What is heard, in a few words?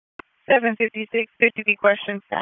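Sheriff's Office Dispatch; WSO DISP 1; wake p25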